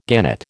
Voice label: "gannet", American